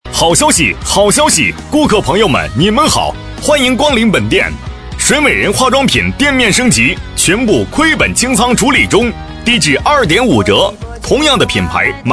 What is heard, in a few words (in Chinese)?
男声; 水美人